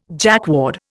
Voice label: "Jacquard", Indian

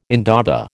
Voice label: "indaba", American

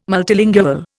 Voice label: "multilingual", Indian

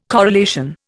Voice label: "corelation", Indian

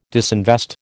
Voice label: "disinvest", American

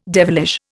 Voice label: "devilish", Indian

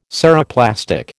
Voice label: "Ceroplastic", American

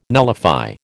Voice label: "nullify", American

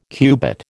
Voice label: "cubit", American

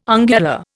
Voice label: "ungula", Indian

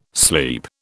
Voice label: "sleep", British

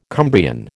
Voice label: "Cumbrian", American

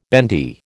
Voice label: "bendy", American

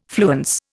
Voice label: "fluence", Indian

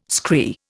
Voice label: "scree", Indian

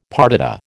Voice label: "partita", American

